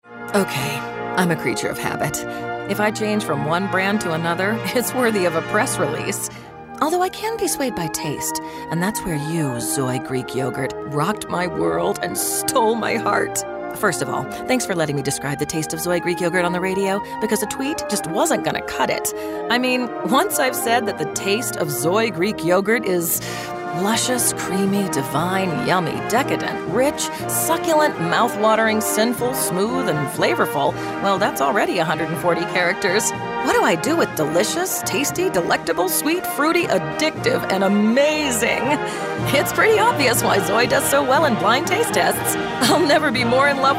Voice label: Conversational